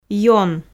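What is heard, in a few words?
"ён", Komi, female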